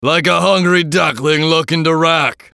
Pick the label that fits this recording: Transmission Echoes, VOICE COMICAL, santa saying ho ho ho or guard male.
VOICE COMICAL